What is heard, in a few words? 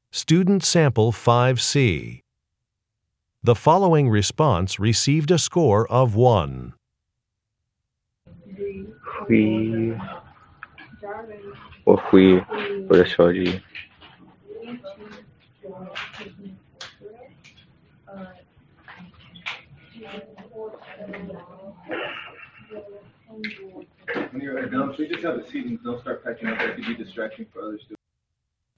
chinese conversation